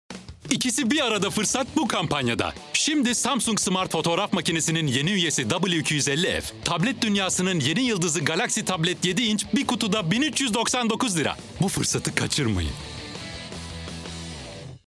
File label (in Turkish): kampanya tempolu, enerjik tempolu